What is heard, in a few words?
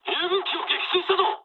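Japanese player killed ally mood low; War Thunder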